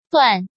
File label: "Duan" 1